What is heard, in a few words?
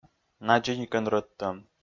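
"надзӧникӧн рӧдтӧм", Komi, male; kpv audio dict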